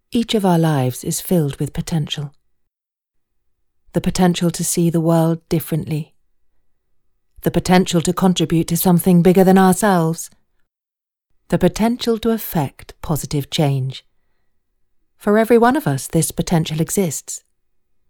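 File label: British English General; GoLocalise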